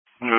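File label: Emergency Medical Services Dispatch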